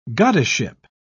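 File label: "goddessship", British English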